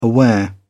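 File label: "aware", British English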